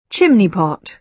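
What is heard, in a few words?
"chimney pot", British English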